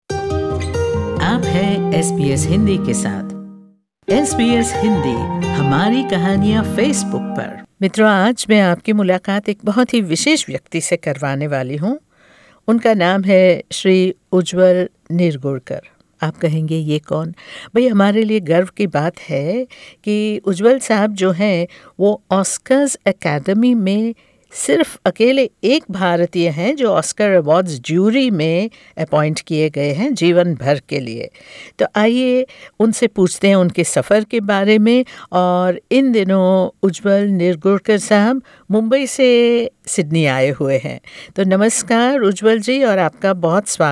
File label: hindi; SBS Hindi; How this Indian chemical engineer made it to Oscar jury